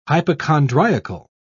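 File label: "hypochondriacal", British English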